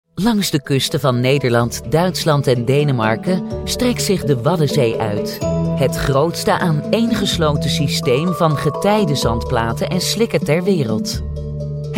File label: Dutch female e-learning